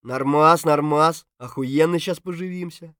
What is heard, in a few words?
mutter; Escape from Tarkov